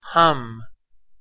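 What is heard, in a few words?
"ham", Persian, male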